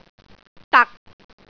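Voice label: "dak6", Cantonese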